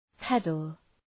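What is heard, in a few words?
"pedal", English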